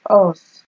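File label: "us", Nigerian Pidgin, female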